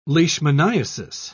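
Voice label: "leishmaniasis", British English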